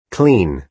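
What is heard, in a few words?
"clean", American English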